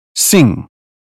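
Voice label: "sing", British phonetics; 英语音标发音（剑桥版）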